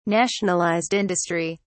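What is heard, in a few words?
"Nationalized industry", British English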